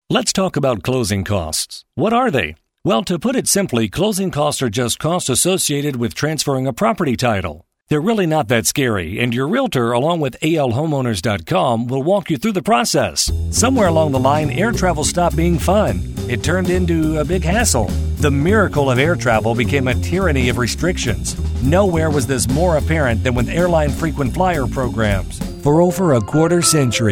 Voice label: Male Narration

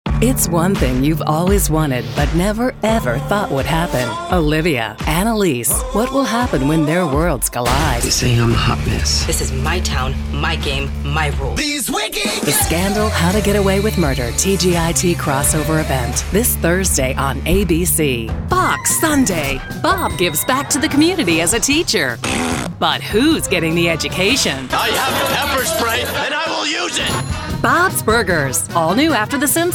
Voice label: Promo

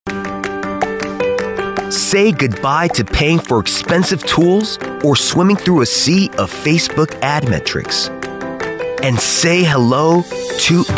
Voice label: Male Commercial Animation

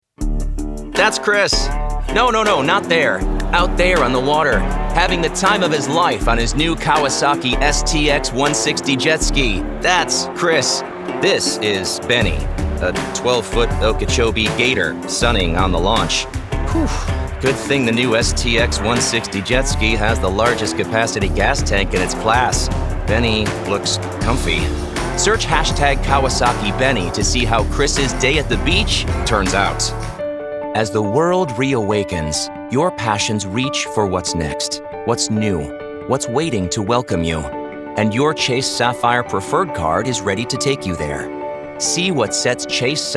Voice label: Explainer

